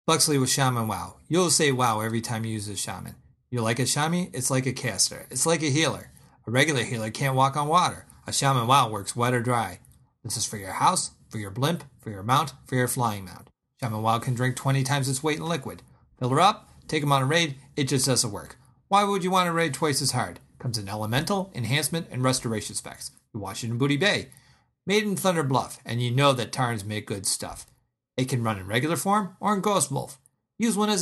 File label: Commercial